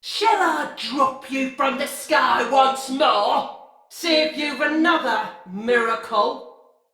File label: See Player Dist, Male; Battle; Barks; Dialog; Sinecure Banneret